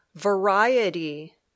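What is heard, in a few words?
"variety", English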